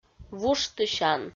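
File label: "вуштысян", Komi, female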